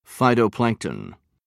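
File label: "phytoplankton", British English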